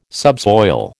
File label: "subsoil", American